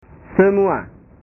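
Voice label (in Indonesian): "semua", Indonesian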